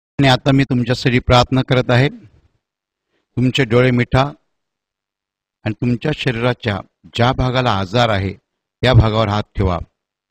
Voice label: Brother Prayer Marathi